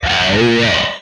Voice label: "Power Up", Speech; retro arcade